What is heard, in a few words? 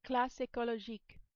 "classe ecologique", French